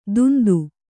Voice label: "ದುಂದು", kannada